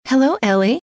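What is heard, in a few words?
"hello", female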